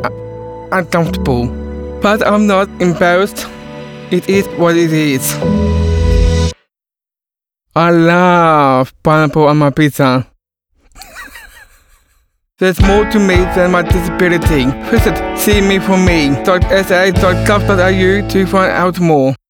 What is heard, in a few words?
radio